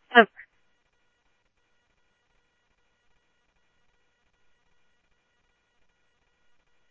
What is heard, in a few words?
Tempe Police, scanner audio; TEMPE PD A04